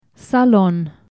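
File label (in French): "salon", Romanian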